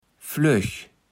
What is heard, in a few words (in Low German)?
"flöch", kaevels platt